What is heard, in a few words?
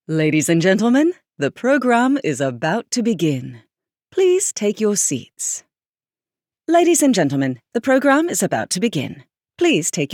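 British Voice of God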